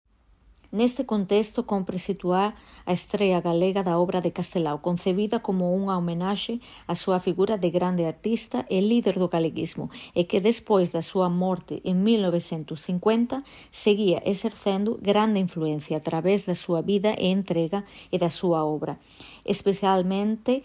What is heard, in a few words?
female galician and spanish castilian galician narration